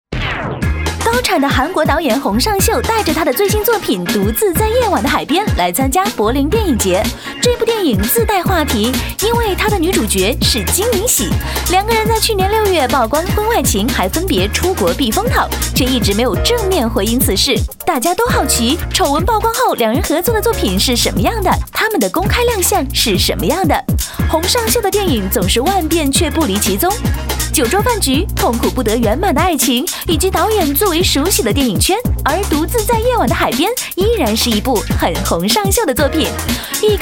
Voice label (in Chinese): 女 娱乐新闻 年轻欢快